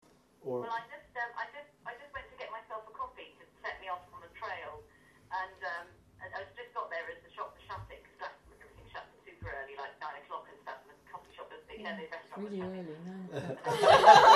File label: posh accent moments